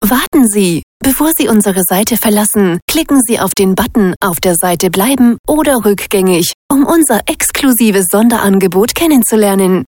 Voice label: IVR German Dry; J Factory